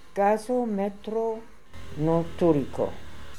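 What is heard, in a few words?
"Gasometronoltooriko", pronunciations; Fourth Way; Beelzebub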